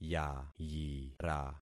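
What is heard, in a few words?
"yayira", concatenated syllables; Affixing experiment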